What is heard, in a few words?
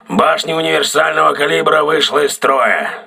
auxiliary caliber turret damaged, crew ships russian